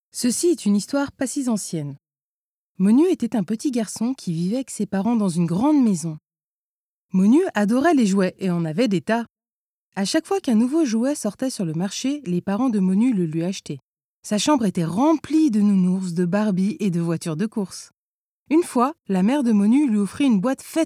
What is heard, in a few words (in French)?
french f narration; voice demos